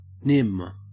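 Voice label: "nim", Australian English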